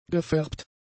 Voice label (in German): "gefärbt", German; adjectives